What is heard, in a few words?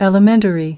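"elementary", English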